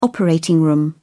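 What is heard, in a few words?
"operating room", British English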